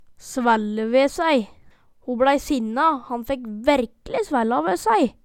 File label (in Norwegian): svælle ve sæi, Numedalsmål